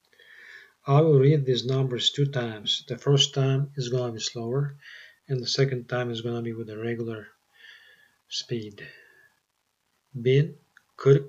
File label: counting 1000s exercise, Turkish